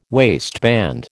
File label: "waistband", American